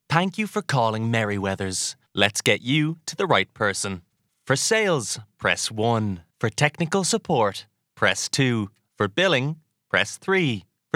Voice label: IVR On Hold Irish; AdvantageIVR